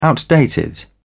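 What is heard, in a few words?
"outdated", British English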